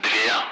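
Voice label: Speech, Russian; Allied Flight; Digits; DCS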